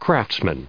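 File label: "craftsmanship", US English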